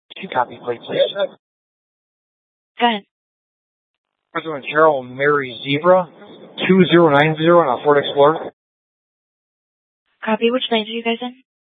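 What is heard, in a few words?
Police Department Dispatch, scanner; Mesa PD; 4 Superstition Dispatch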